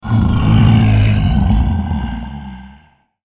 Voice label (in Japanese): ゾンビボイス